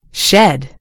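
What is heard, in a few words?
"shed", American English; gcompris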